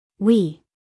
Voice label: "we", us female

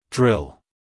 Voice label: "drill", us male

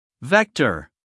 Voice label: "vector", us male; everyone-can-use-english

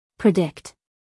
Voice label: "predict", US female; everyone-can-use-english

